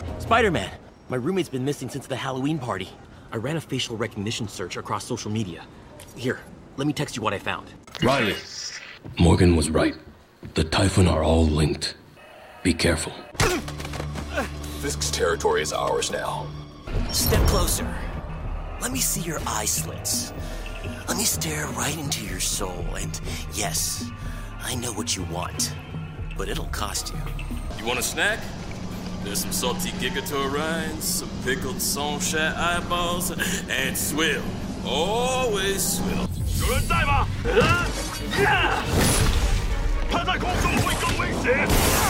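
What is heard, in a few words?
animation men interactive, Voice Over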